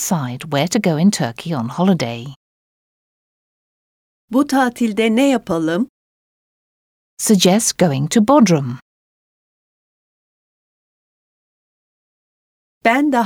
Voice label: Speaking, Turkish